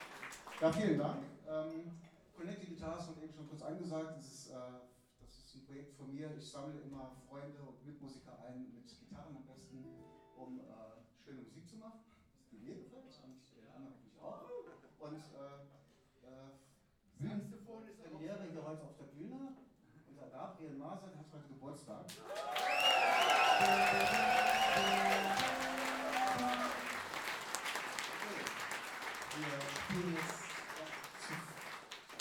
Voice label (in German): Ansage; Jazz-Club Trier e.V. (JCT); 25. Jazzgipfel (Tufa, Trier)